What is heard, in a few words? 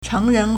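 "chéngrén", Chinese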